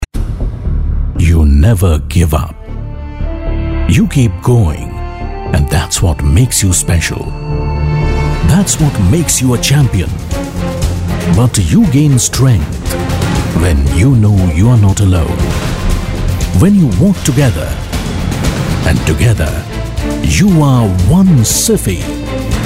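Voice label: English voice over Sample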